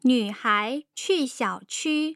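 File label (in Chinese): nu3 hai2 qu4 xiao3 qu1, Mandarin; tough sounds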